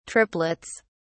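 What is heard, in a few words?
"triplets", British English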